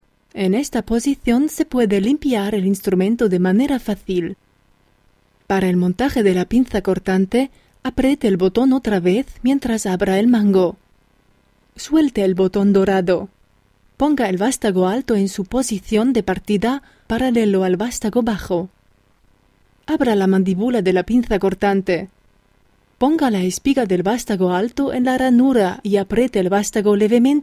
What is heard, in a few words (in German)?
Spanisch